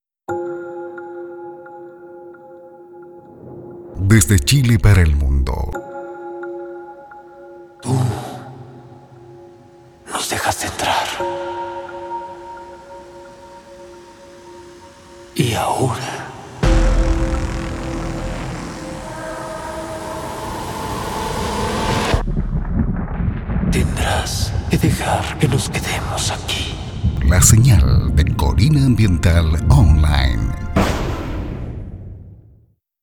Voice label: Promoción radial

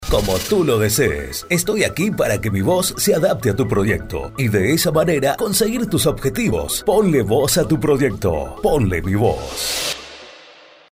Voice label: hablado

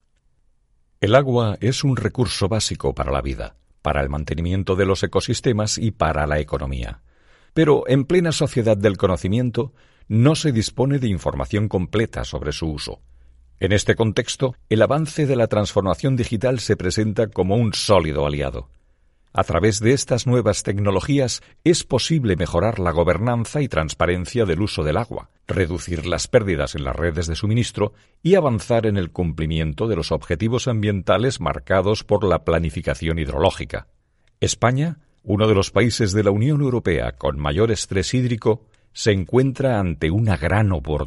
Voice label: Español. Documental

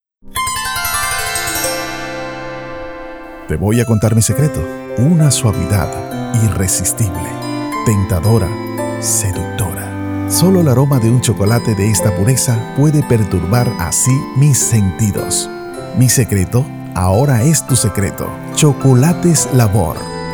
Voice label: Comercial Chocolate Tv

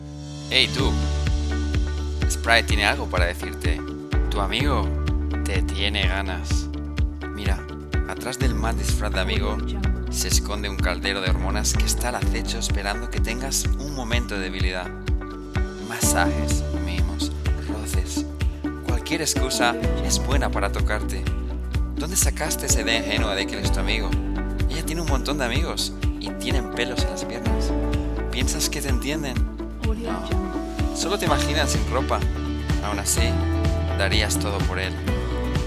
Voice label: con musica

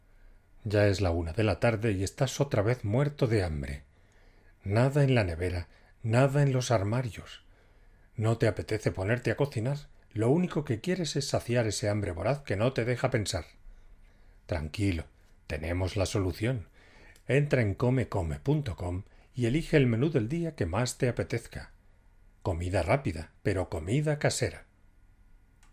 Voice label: COMERCIAL

